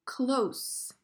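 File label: "Close", English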